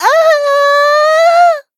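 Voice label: hit, Thai; cookie run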